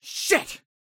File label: Voice Male Late Thirties Swear Shit Trouble